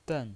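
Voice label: "den", Mandarin Chinese pinyin voice, neutral tone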